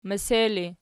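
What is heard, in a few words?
"mese" ergative, Archi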